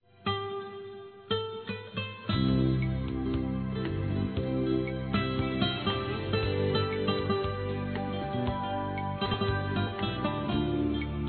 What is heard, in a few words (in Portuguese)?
Aniversário Avô Masculino; Aniversários; Aniv Familiar